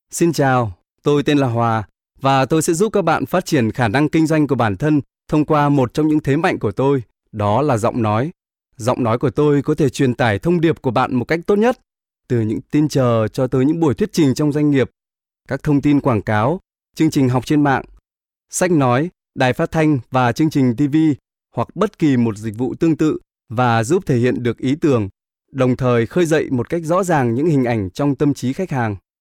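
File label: Vietnamese North, voice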